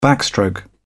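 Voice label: "backstroke", British English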